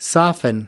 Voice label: "soften", us